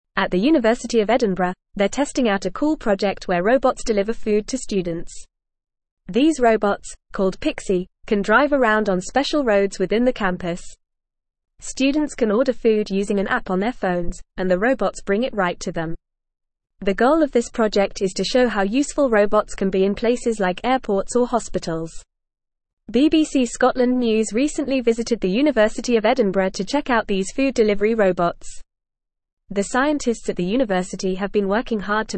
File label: English Upper Intermediate FAST Reading